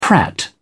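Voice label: "prat", English, British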